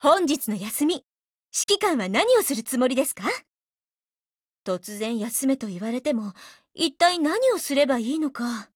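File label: DIALOGUE, Japanese; CM901; 少女前线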